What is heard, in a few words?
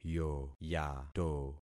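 "yoyato", concatenated syllables; Affixing experiment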